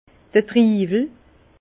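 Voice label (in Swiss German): "triiwel", Alsace Bas Rhin; vin; raisin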